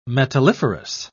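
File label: "metalliferous", English, British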